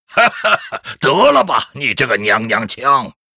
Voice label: pissed, zh

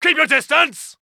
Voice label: uk Neutral

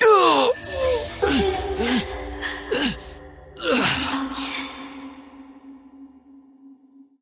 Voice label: death, zh

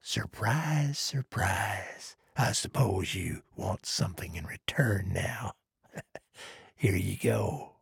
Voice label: trader male neutral quest complete

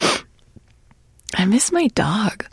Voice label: sad; 商人杰恩（七日杀）

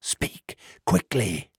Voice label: trader male neutral greeting

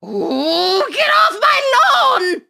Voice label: Sorceress turn Start

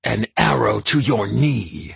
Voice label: dark army archer taunt

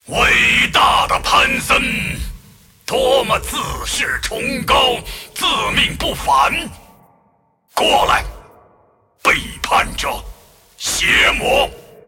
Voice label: move, zh

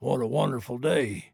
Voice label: trader male like greeting

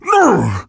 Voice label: hurt; 拉夫

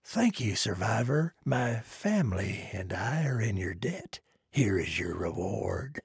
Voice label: trader male like quest complete

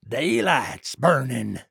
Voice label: trader male neutral sale declined

